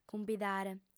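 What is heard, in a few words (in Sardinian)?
"cumbidare", female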